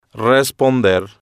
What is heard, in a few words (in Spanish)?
"responder", Spanish